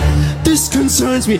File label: the voice cracks